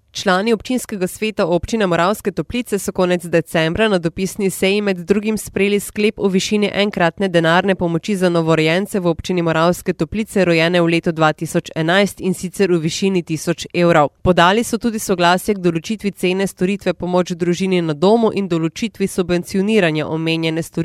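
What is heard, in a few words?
slovenian female narration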